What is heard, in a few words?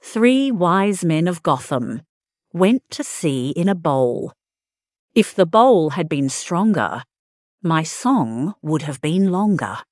fem aus; Three Wise Men of Gotham